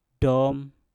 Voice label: "dom", Mpur; biji sirih